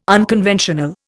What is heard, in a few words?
"unconventional", Indian